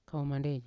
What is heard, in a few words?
"kaumande", Yawa; eight